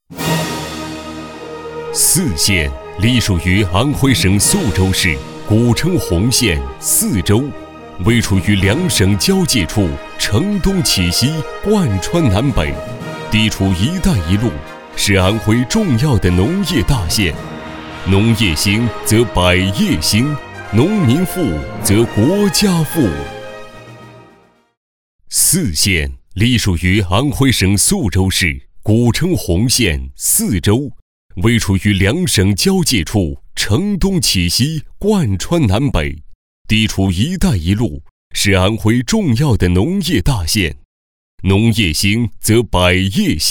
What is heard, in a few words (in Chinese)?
专题 大气浑厚